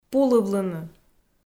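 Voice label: "пулывлыны", Komi, female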